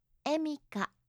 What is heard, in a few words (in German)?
"emika", japanische maedchennamen